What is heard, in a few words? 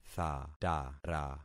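"thatara", concatenated syllables; Affixing experiment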